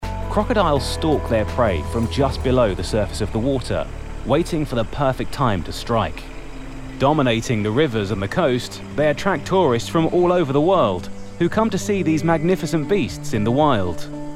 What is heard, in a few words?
Nature Documentary